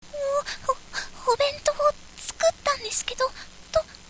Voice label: girl